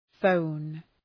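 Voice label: "phone", English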